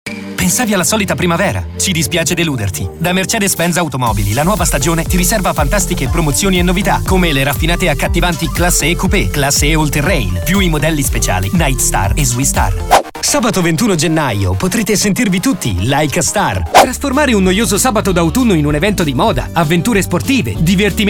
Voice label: spot